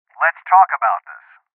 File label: Voices Postpone Male